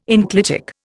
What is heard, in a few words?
"enclitic", Indian